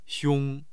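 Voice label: "xiong1", Mandarin Chinese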